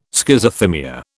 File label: "Schizothymia", British